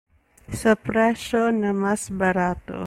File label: Cebuano